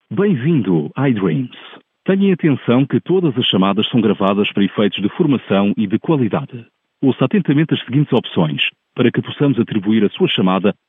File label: Portuguese voice, telephone